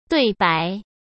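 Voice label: "对白", Mandarin Chinese; hsk; chinese-flashcards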